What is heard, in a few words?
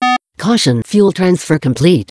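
Beep Caution Fuel Transfer; Fuel XFER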